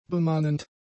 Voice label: "bemannend", German; adjectives